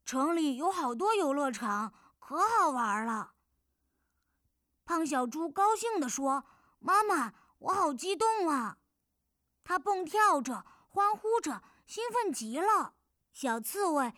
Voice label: 角色童声憨厚呆呆

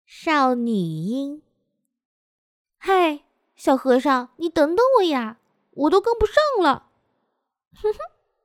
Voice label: 少女音

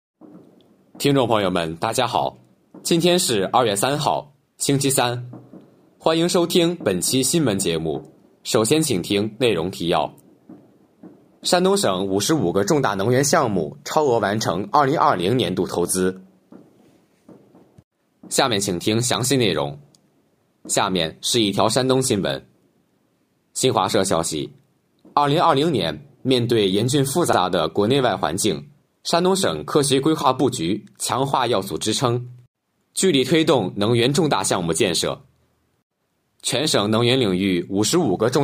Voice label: 新闻录音

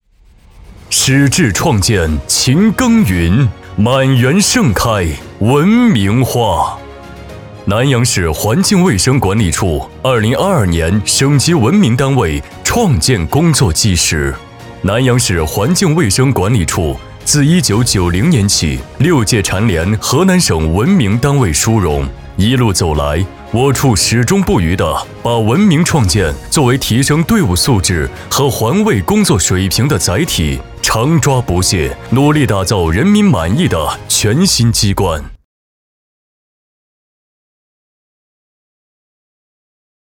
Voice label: 沉稳大气环境卫生

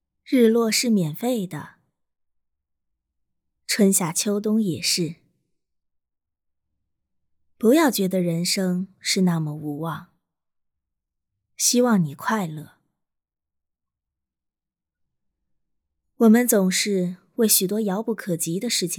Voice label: 旁白独白自白

